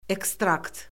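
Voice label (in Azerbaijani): "ekstrakt", Azerbaijani; Orfoqrafiya Lüğəti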